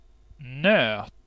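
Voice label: "nöt", Swedish; «орех»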